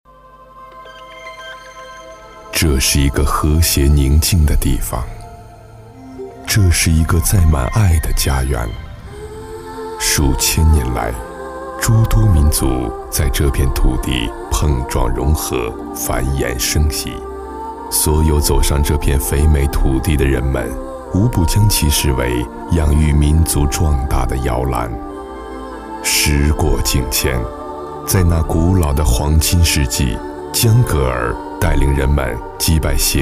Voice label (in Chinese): 纪录片 人文历史 低沉; 和布克赛尔